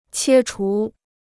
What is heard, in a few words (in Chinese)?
"切除", Chinese